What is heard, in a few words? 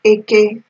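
"eke", Nigerian Pidgin, female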